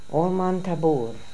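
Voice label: "Olmantaboor", pronunciations; Fourth Way; Beelzebub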